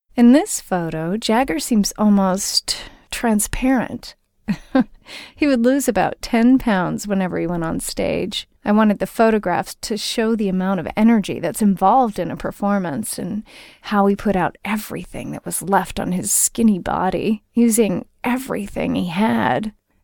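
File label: american us female narration; english; voice demos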